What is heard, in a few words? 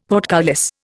"portcullis", Indian; Dictionary